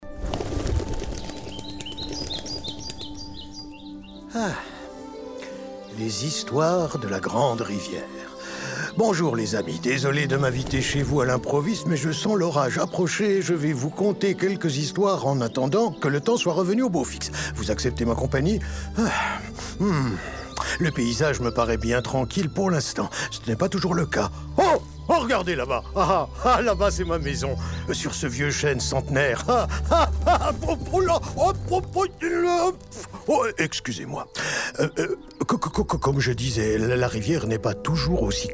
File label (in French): Dessin animé